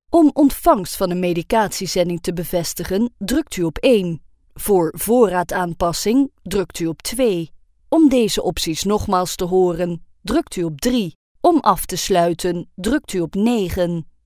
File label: dutch ivr